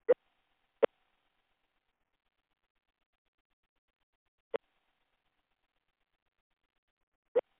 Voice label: Space to Ground; Expedition 39